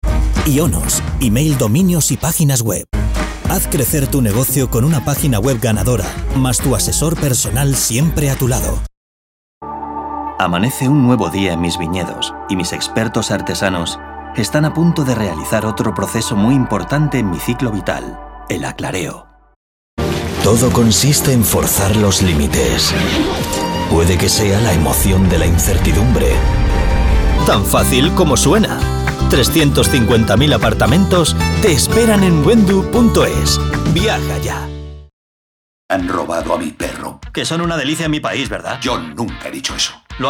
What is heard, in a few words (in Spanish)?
spots tv